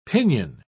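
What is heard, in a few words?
"pinyon", British English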